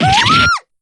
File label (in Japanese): damage, jp; p4u; yukari